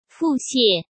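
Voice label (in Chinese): "腹泻", Mandarin Chinese; hsk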